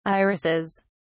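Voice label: "irises", British English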